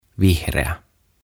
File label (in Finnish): "Vihreä" "Green"; OTAVA KONSERNI